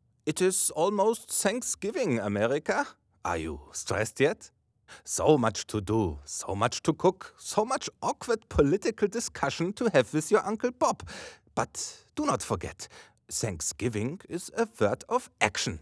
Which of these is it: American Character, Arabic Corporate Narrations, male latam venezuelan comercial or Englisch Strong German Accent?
Englisch Strong German Accent